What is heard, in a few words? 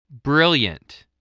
"brilliant", spelling